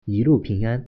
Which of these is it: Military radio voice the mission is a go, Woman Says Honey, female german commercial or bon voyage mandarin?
bon voyage mandarin